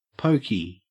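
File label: "poky", Australian English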